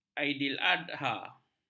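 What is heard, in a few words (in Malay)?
"aidiladha", Malay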